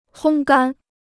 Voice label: "烘干", Chinese

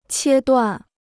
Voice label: "切断", Chinese

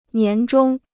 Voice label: "年终", Chinese

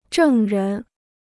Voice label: "证人", Chinese

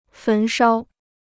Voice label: "焚烧", Chinese

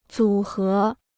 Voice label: "组合", Chinese

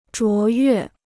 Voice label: "卓越", Chinese

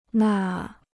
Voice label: "那", Chinese

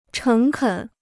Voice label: "诚恳", Chinese

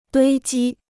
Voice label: "堆积", Chinese

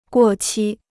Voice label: "过期", Chinese

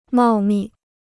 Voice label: "茂密", Chinese

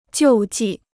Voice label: "救济", Chinese

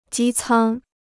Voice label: "机舱", Chinese

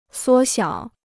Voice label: "缩小", Chinese

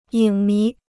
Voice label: "影迷", Chinese

